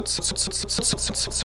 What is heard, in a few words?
dentals plain, Romanian